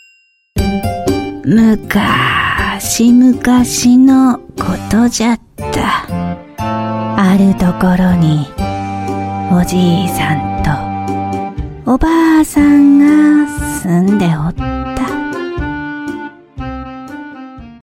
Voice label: Japanese Old Woman Voice